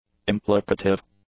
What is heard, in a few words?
"implicative", English; adjectives